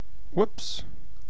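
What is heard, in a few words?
"whoops", British English; pronunciations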